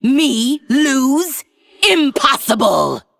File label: Female Forsaken